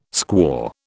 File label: "squaw", British